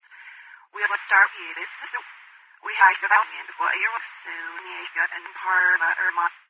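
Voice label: data transfer garbled female vox, citadel; video games; source engine; episodic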